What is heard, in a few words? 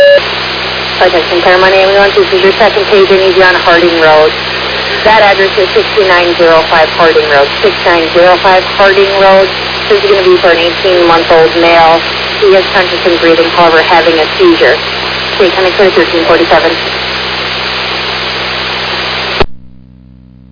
Fire, dispatch; Clermont